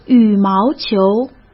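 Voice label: "羽毛球", Mandarin Chinese; hsk; chinese-flashcards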